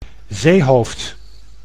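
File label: "zeehoofd", Dutch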